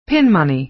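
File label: "pin money", American English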